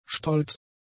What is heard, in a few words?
"stolz", German; adjectives